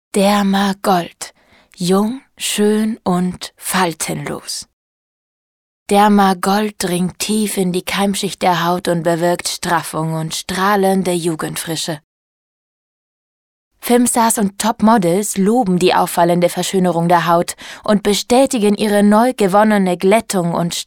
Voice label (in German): Sanft; Dermagold